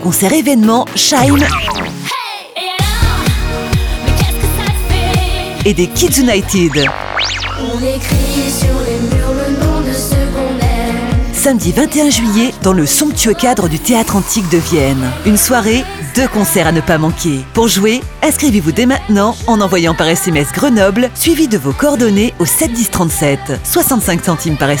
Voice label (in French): Habillage radio; Alpes 1